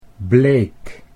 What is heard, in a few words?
"bleek", Dutch